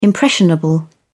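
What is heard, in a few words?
"impressionable", British English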